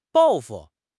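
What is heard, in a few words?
"报复", Chinese; HSK6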